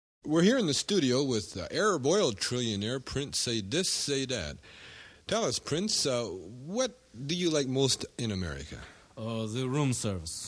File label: Commercial